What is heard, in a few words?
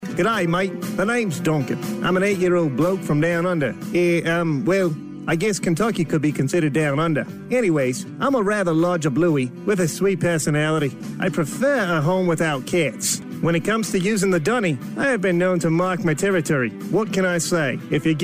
Commercial Characters; Duncan the Dog, Donner